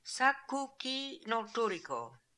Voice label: "Sakookinoltooriko", pronounciations; Fourth Way; Beelzebub

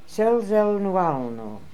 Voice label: "Selzelnualno", pronounciations; Fourth Way; Beelzebub

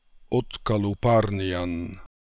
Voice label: "Otkalooparnian", pronounciations; Fourth Way; Beelzebub

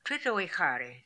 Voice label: "Tritoehary", pronounciations; Fourth Way; Beelzebub

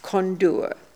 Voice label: "Kondoor", pronounciations; Fourth Way; Beelzebub

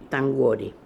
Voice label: "Tanguori", pronounciations; Fourth Way; Beelzebub

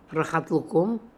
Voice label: "Rahat Lokoum", pronounciations; Fourth Way; Beelzebub

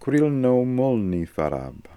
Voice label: "Krilnomolnifarab", pronounciations; Fourth Way; Beelzebub

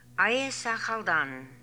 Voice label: "Aiesakhaldan", Beelzebub pronounciations; Fourth Way

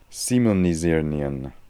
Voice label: "Similnisirnian", pronounciations; Fourth Way; Beelzebub

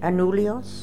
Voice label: "Anulios", Beelzebub pronounciations; Fourth Way

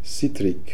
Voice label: "Sitrik", pronounciations; Fourth Way; Beelzebub

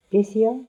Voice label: "Pythia", pronounciations; Fourth Way; Beelzebub

